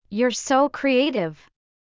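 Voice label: Youre so creative, English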